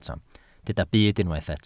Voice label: "byd", Welsh